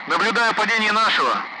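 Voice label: Speech Sound Russian Common Allied Flight Messages loud; member down; DCS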